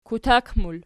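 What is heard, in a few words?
"kutak", plural, Archi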